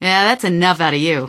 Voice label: answer, female; Garry's Mod; hl2